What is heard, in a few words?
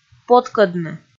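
"поткӧдны", Komi, female; kpv audio dict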